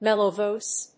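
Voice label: "mellow voice", English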